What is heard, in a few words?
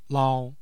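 "lao", Mandarin Chinese, tone 1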